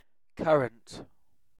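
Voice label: "currant", British English; pronunciations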